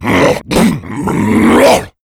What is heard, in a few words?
pain; nuke; redead